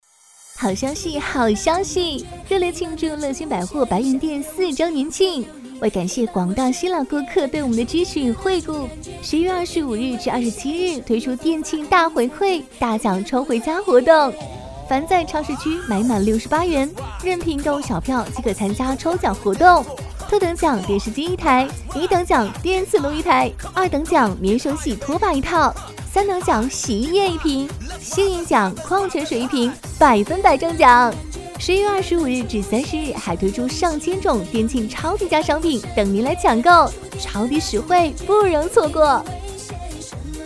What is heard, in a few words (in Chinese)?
商超广告